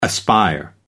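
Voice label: "aspire", English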